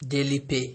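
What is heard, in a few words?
"daily pay", Nigerian Pidgin, male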